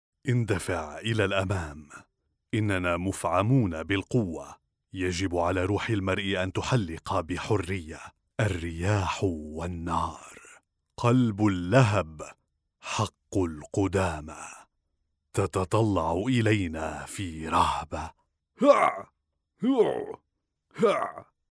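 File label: Arabic, Saudi Arabia, male, voice over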